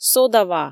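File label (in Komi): сода ва, Komi, female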